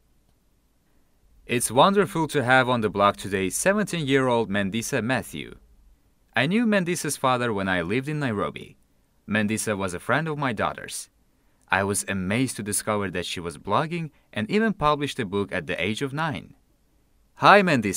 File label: English dialoq; doktorantura